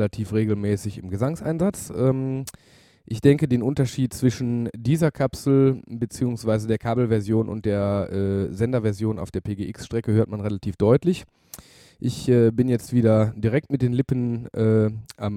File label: Beta87A, vocal mics